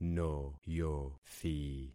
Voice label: "noyothi", concat syllables; Affixing experiment